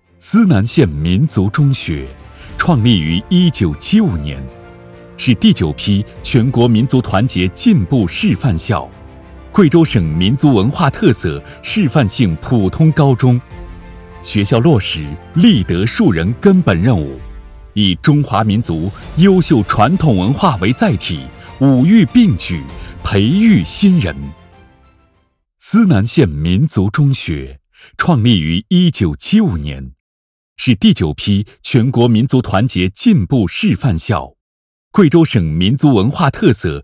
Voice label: 男 学校宣传片 积极 大气 激情 官方

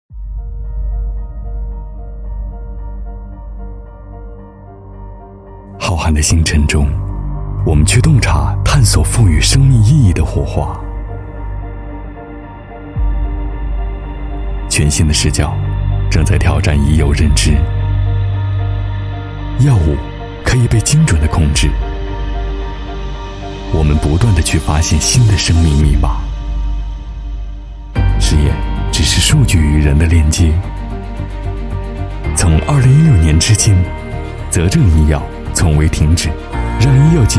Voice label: 男, 宣传片, 科技宣传片

